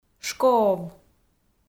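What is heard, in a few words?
"шкоов", Komi, female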